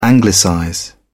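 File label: "anglicize", British English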